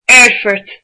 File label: "airport", Irish